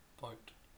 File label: "boat", pre-voiceless